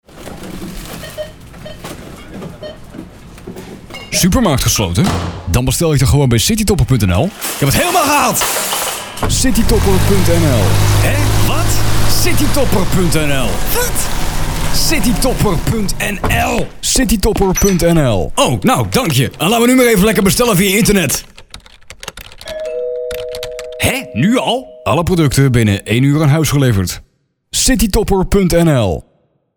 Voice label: commercial; citytopper